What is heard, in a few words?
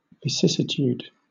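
"vicissitude", British English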